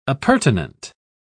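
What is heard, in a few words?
"appurtenant", British English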